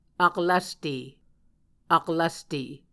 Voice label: "aklvste", Muscogee